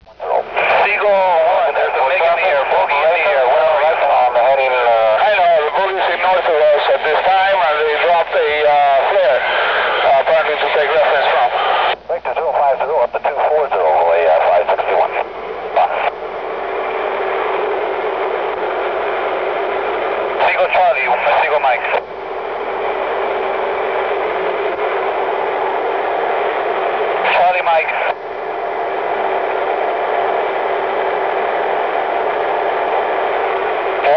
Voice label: english radio; cuba shootdown